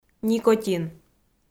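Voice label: "никотин", Komi-Zyrian, female; kpv audio dict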